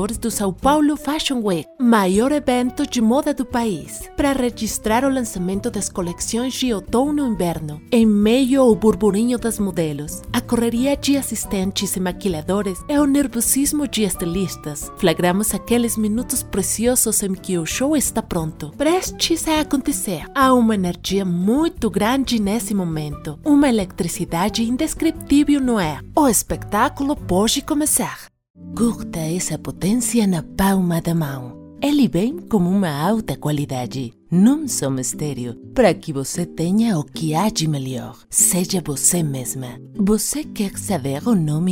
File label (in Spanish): Portugues